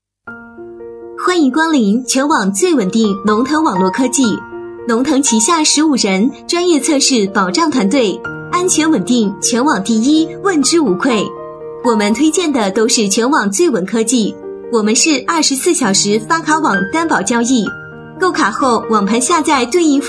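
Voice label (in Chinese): 女声温馨提示; 绝地求生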